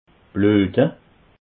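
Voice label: "bluete", Alsatian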